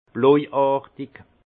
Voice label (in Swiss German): "blojartig", alsace; vin; bleuâtre